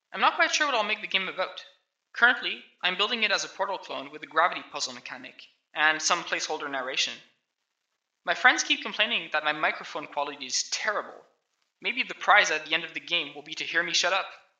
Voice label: voice, narration